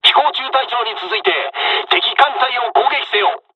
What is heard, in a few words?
dialogs japanese; midway jpn; lider fly; War Thunder